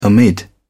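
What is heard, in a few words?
"amid", English, British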